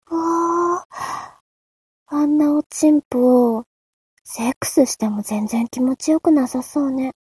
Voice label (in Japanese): mob 女子 台詞